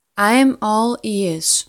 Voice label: Im all ears pronunciation slow beginner; Best English Nitra